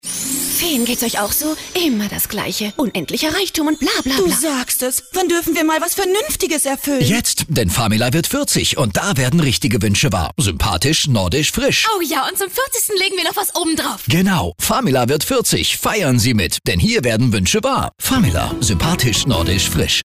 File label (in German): Sprecherin; WERBUNG; FAMILA: 40 Jahre Famila